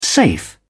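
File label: "safe", English, British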